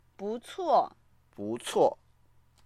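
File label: "bu4cuo4", Mandarin Chinese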